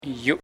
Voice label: "water", "agua", Nasa Yuwe; paez